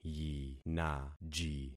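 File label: "yinaji", concatenated syllables; Affixing experiment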